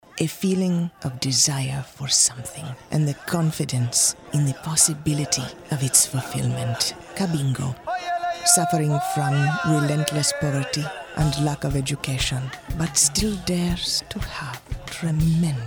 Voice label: African